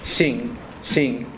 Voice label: "xin" male